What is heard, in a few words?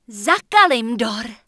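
Human Female Open Fire, Czech; wowpreklad; czwow; HumanVocalFemale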